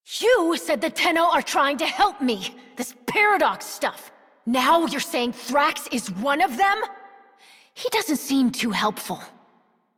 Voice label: Adult, en, Dialog